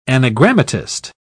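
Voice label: "anagrammatist", British English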